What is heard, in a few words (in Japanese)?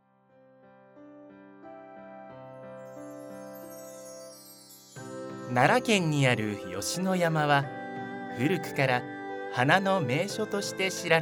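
narration, ボイスサンプル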